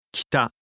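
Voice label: "kita", Japanese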